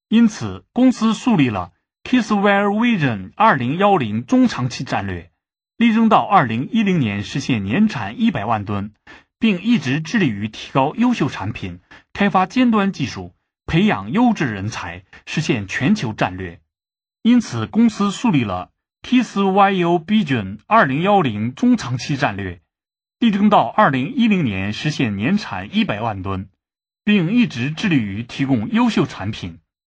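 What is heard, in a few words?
Chinese, male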